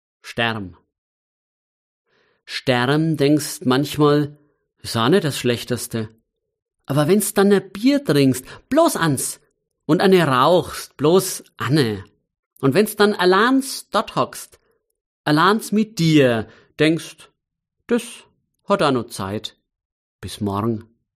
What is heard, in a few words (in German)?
Mundart